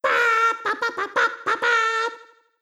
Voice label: Fanfare (Italian)